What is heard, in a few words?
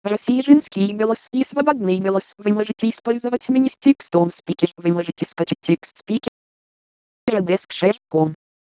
russian female